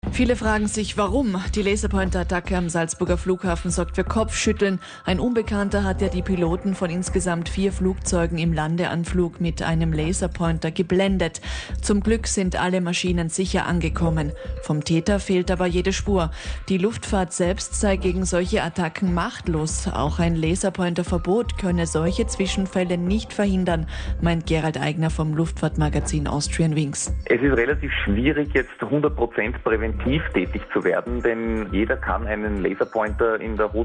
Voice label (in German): Interview; Laserpointer-Attacken am Flughafen Salzburg; Kronehit